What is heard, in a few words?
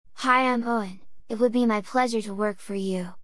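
kid, voiceover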